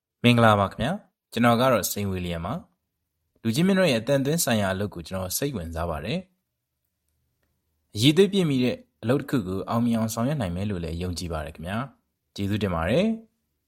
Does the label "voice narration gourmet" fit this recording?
No